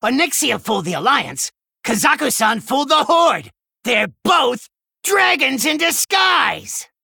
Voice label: Male Gnome; Scabbs

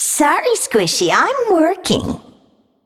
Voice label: Female Naga

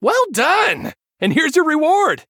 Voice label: Male Human After Triple

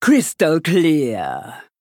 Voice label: Female Troll Attack

